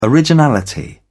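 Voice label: "originality", British English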